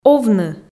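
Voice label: "овны", Komi, female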